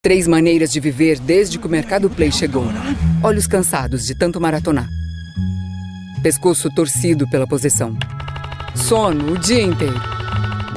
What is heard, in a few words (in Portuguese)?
interpretado misterioso coloquial; Mercado Play